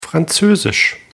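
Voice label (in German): "franzoesisch", German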